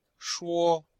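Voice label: "shuo1", Chinese; Cours-de-Chinois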